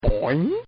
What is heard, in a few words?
Cartoon Voice BOOOING; Cartoon Voices; Cartoons